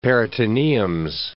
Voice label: "peritoneums", English